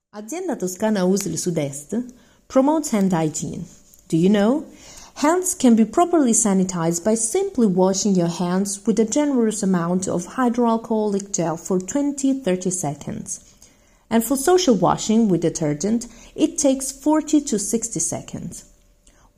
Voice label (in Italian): inglese